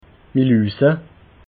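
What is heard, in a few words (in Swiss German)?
"milhusa", Alsatian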